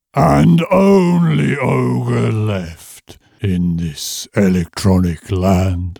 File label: Voice of God Ogre, voice-over; Genuine British Received pronunciation, cockney and Scottish